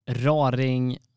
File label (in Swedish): "Raring", Swedish